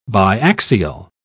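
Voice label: "biaxial", British English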